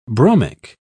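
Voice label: "bromic", British English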